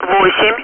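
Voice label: Speech, Russian, air traffic control; Digits; DCS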